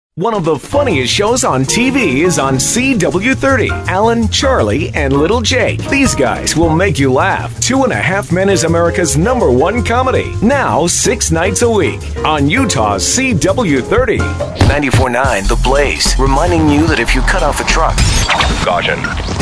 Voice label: promo